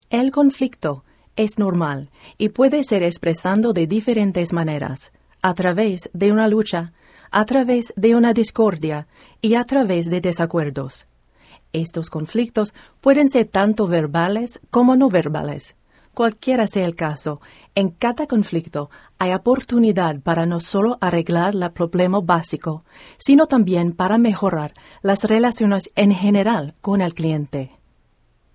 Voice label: Narration Spanish